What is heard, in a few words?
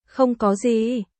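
Vietnamese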